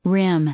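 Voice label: "rim", English, female; noun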